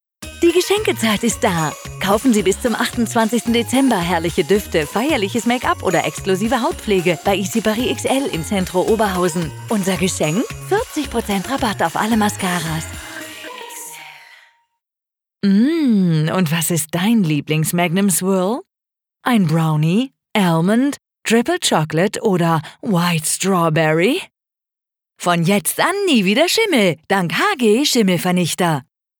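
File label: German commercials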